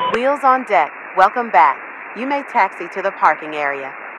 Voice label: Radio atc Safe Landing Player